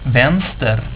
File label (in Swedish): "vänster", Swedish